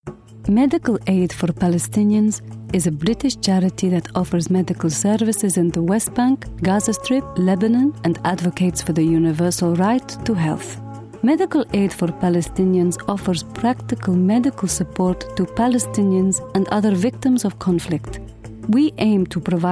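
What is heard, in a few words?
Arabic English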